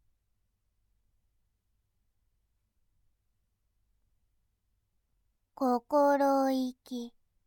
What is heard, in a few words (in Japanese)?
"心意気", ASMR; モノラル